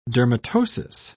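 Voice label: "dermatosis", British English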